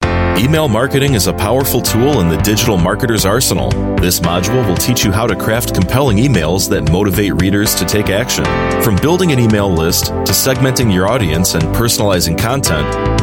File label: US English Narration